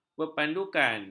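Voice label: "berpandukan", Malay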